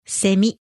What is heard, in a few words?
"semi", Japanese